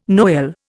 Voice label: "Noel", Indian